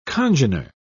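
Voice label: "congener", British English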